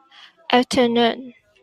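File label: "afternoon", Taiwan, female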